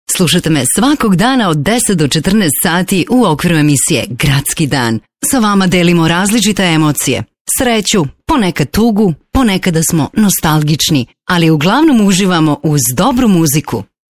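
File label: Serbian female narration; voice demos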